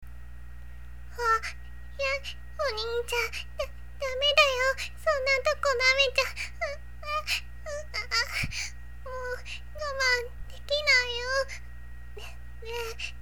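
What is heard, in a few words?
tsundere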